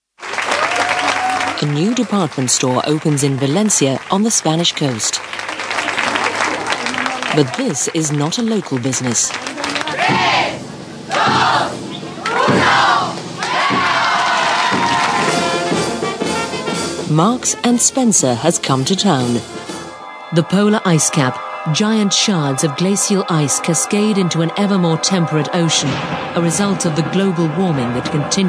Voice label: narrative documentary